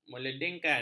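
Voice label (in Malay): "meledingkan", Malay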